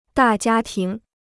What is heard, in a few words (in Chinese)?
"大家庭", Chinese